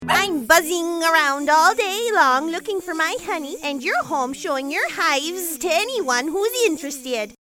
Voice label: Buzzing Minnasota Accent